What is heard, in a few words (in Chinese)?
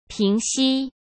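"平息", Mandarin Chinese; chinese flashcards; hsk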